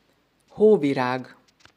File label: "hóvirág", hungarian; Snowdrop; hungarian flower flashcards